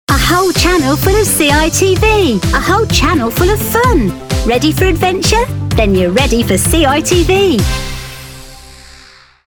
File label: TV PROMO; CITV